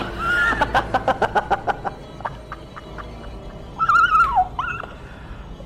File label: mic test; H2ODelirious